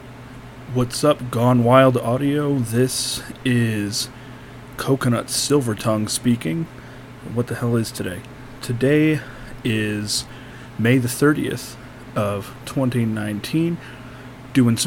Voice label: verification male